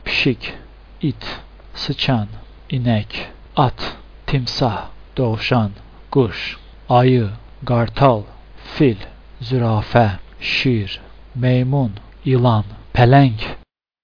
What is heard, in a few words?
azerbaijani; animals